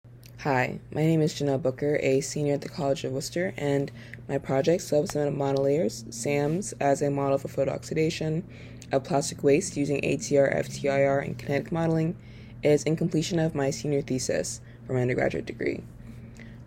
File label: Poster Voiceover